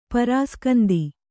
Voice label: "ಪರಾಸ್ಕಂದಿ", Kannada